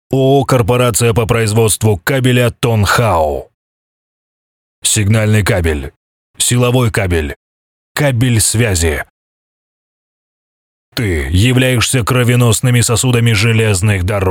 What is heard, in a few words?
Russian Male; Cable Manufacturer